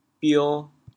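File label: "bio2", Teochew, chaoyang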